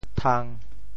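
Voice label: "thang1", Teochew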